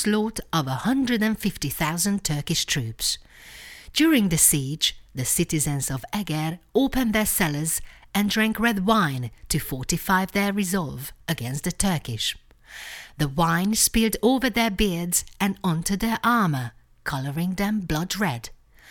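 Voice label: Hungarian Accented English; GoLocalise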